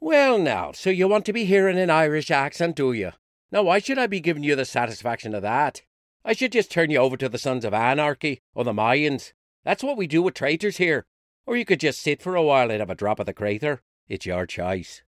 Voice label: Irish; Voice, Acting & Singing samples